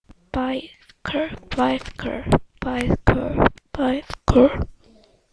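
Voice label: "bicycle", spoken word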